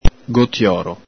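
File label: "gotioro", Venetian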